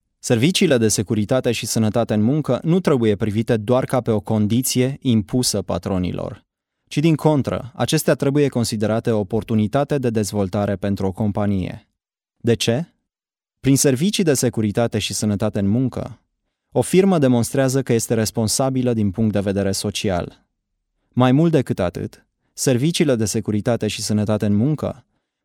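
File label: Romanian, male; romanian voice over talent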